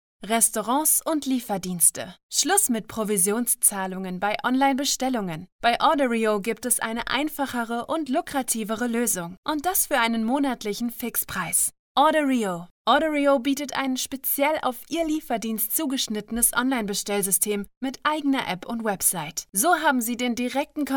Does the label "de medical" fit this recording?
No